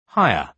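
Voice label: "hire", British English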